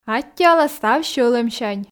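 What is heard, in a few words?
"аттьӧала став сьӧлӧмсянь", Komi, female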